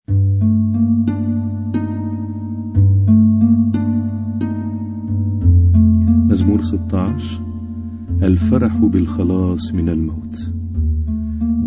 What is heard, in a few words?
Arabic Psalm Zaboor